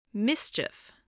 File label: "mischief", voice, spelling